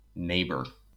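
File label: "neighbor", American English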